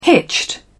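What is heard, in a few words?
"hitched", British English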